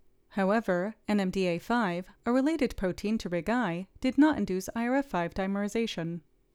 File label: Voiceover